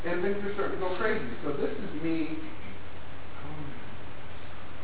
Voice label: Strange voice